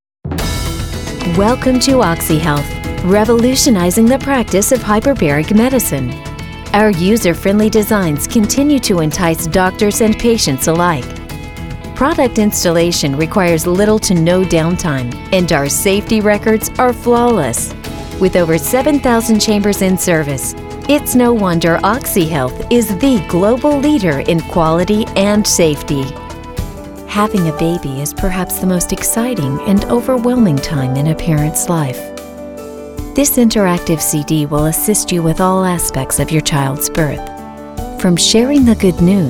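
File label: female narration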